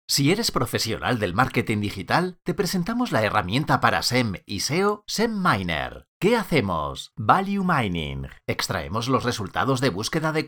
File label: spanish european male